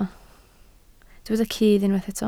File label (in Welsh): "cudd", Welsh